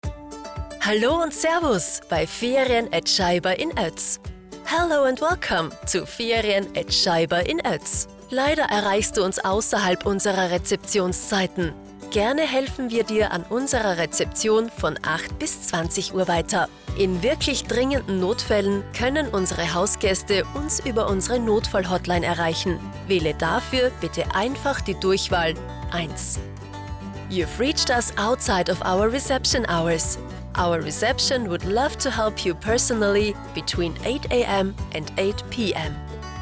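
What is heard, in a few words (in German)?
Nachtansage, Telefonanlagen; Ferien AT Scheiber; telefonansagen hotels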